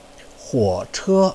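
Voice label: "huoche", Mandarin Chinese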